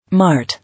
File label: "Mart", English